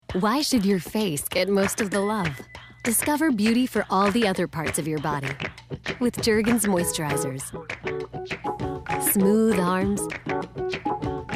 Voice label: commercial